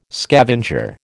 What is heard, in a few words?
"scavenger", American